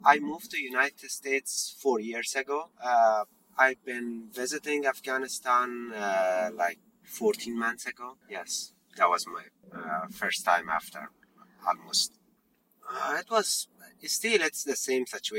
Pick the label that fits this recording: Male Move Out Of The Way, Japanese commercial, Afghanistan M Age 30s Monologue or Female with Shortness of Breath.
Afghanistan M Age 30s Monologue